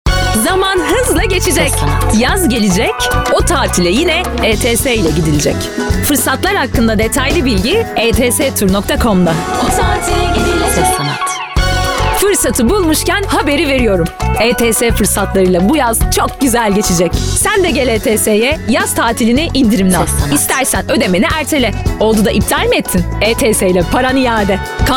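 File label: Turkish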